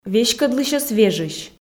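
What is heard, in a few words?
веськӧдлысьӧс вежысь, Komi, female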